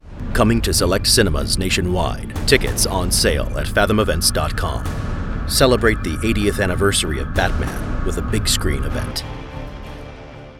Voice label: Trailer; Batman

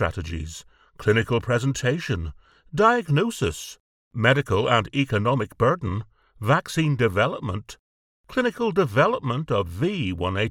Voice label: Dengue eLearning

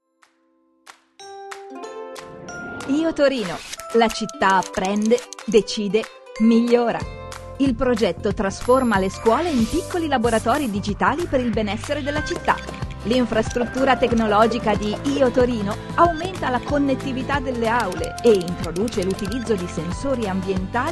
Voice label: CORPORATE Presentations IT

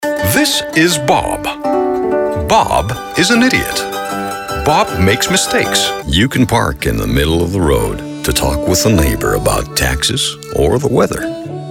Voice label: General VO

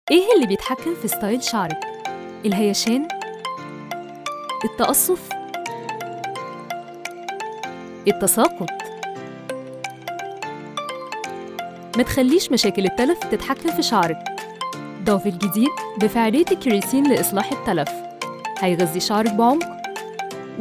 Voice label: Ad

